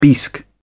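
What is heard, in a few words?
"bisque", British English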